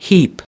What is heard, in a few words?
"heap", us